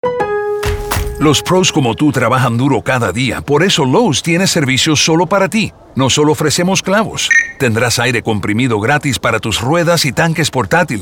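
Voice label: Radio, Spanish; Lowes; Good To Pro; Launch; Afternoon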